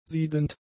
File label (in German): "siedend", German; adjectives